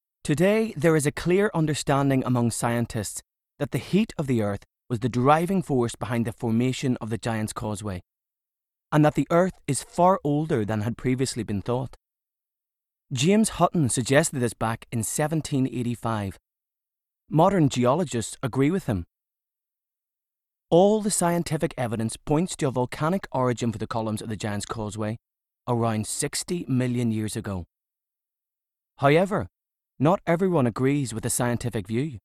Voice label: male english irish neutral irish accent documentary; the giants causeway; Devine Voices